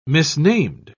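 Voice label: "misnamed", British English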